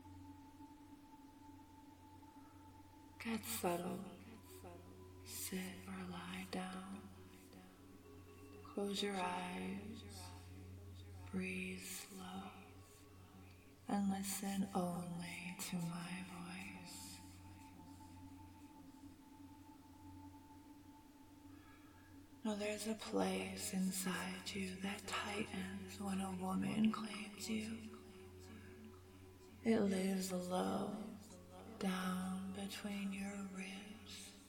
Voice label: Brainwashing Obedience, F4M; Headphones; Siren Bite; Quiet Claim

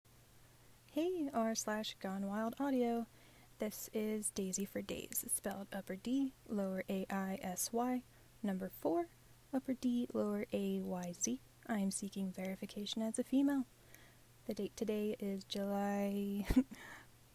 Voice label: F Verification

